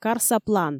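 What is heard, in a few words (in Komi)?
"карса план", Komi, female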